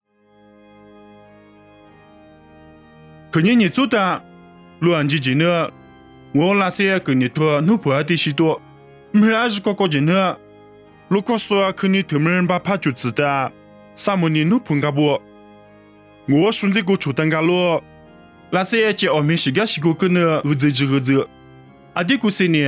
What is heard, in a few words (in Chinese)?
凉山彝语; 地中海克里特; nuosu